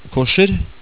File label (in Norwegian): "kosjer" Norwegian